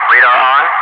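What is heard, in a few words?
"radar on", English speech, Wingman; Messages; DCS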